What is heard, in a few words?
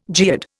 "geode", Indian